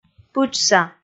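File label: "пытшса", Komi, female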